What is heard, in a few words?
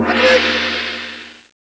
announcement; janjan motokkun